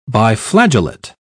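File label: "biflagellate", English, British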